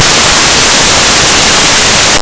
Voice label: nikto, voices male; Никто